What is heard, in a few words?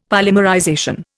"polymerization", Indian; Dictionary